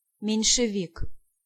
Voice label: "Menshevik", Pronunciation